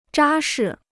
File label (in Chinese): "扎实", Chinese